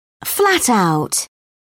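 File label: "flat out", British English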